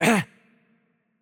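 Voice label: Turkish hurt light voice; bloom minigame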